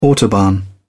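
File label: "autobahn", British English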